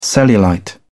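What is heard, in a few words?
"cellulite", British English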